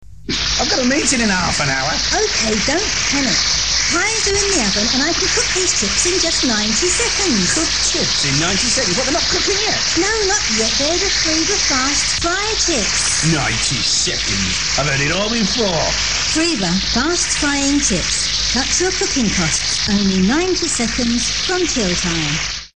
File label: ads - fast fry chips, adverts